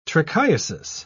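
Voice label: "trichiasis", British English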